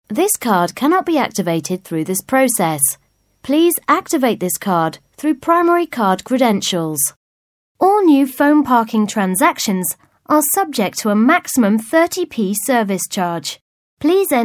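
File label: English UK General; GoLocalise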